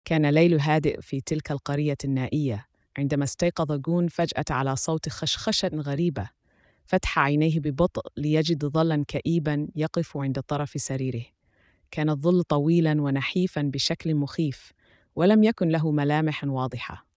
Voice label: Arabic